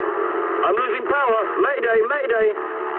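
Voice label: English damaged engine mood medium; War Thunder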